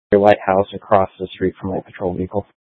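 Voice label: County Fire, Scanner audio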